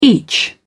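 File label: "each", British English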